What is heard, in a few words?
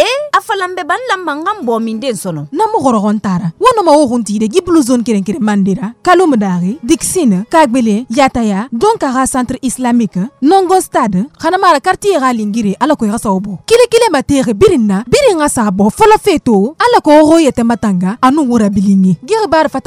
Spot COVID vaccination Sousou